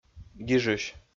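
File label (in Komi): "гижысь", Komi, male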